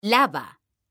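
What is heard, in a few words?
"lava", Spanish, female; mekanta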